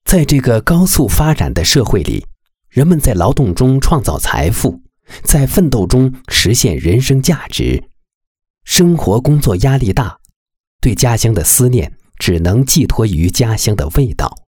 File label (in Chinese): 男 食品有限公司; 重庆二姐食品有限公司